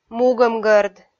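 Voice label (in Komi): "мугӧмгӧрд", Komi, female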